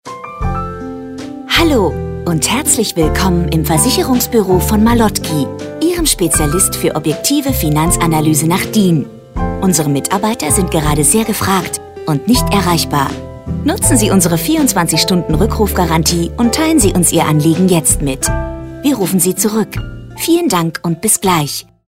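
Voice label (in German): Ansage Während der Öffnungszeiten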